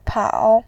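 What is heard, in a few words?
"pao", Mandarin, tone 3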